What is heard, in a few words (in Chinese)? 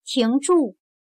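"停住" Mandarin Chinese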